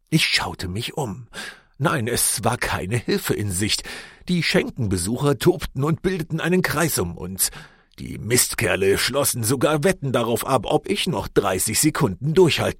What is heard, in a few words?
german audiobooks